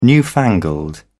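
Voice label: "newfangled", British English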